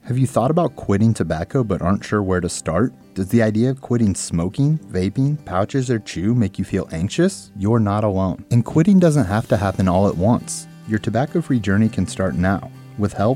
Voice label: Male 35 plus; Quitting Tobacco; IowaHHS

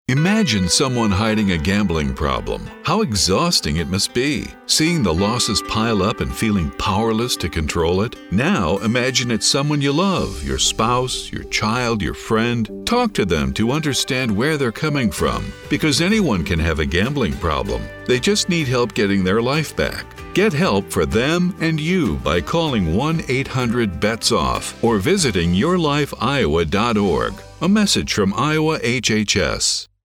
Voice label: rural male; Rural Male Spot; IA Dept of Health

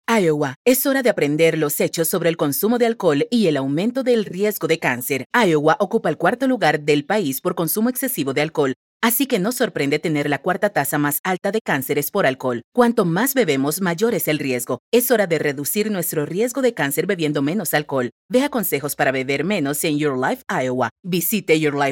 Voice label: Alc Misuse Cancer Female; Female VO Only; Spanish; Cancer & Alcohol - Straight Facts

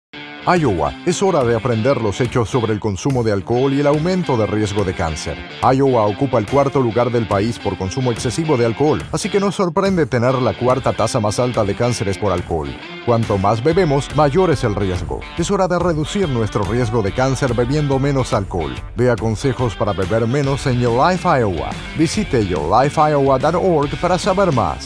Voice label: Male Spa; Spanish; Cancer & Alcohol - Straight Facts

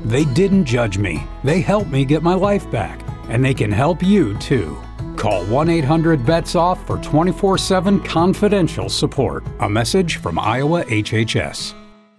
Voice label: Male Over 40; 30-sec

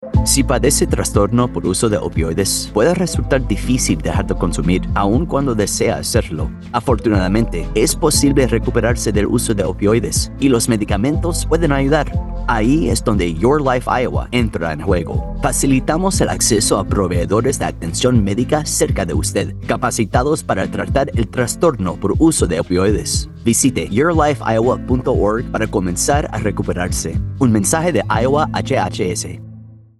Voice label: Use Disorder male Spanish; MOUD

